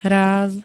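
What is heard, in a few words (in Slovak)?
"ráz", Slovak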